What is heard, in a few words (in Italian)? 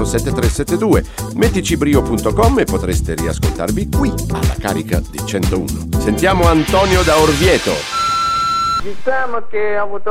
imitazione; marco simoncelli; r101